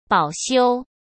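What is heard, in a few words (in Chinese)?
"保修", Mandarin Chinese; hsk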